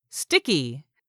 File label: "STICKY", english; 3000 words